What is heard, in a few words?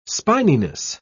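"spininess", British English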